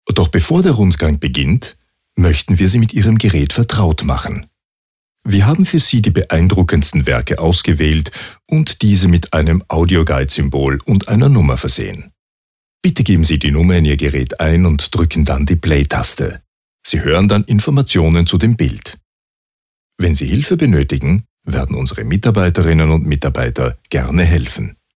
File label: audioguide museum